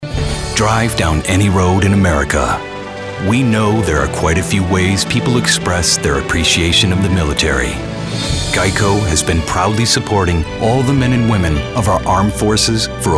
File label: Commercial